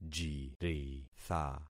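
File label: "jiritha", concatenated syllables; Affixing experiment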